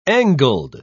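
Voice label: "angled", British English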